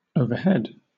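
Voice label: "overhead", British English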